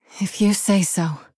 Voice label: Human Female

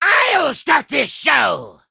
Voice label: Male Imp Play

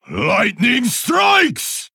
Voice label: Male Pandaren Attack

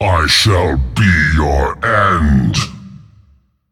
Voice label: Male Demon Attack

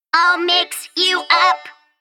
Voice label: Female Mech Attack

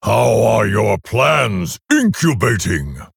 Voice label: Male Orc Greetings

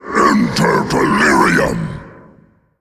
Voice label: Male Faceless Attack

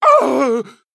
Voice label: Male Troll Death

